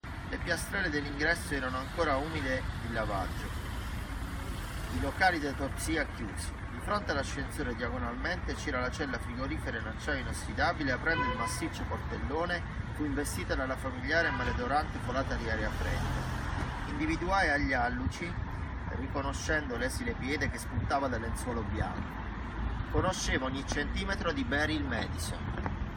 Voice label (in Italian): Esterna iPhone Piano Americano caos sottofondo; nerdvana